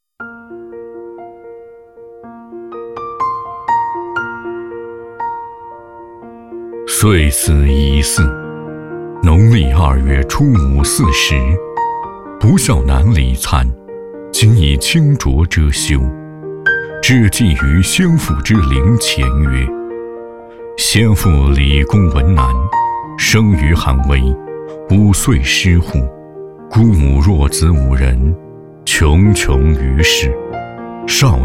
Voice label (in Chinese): 男 悼念风格; 纪念先人